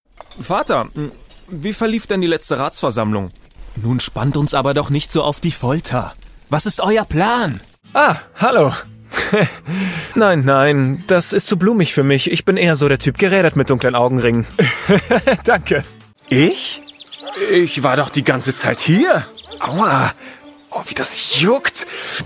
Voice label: charakterrollen voiceovers

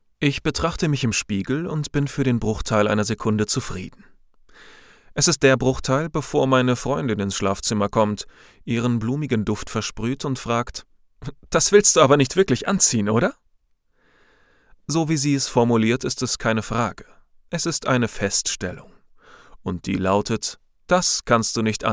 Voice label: hoerbuch romcom

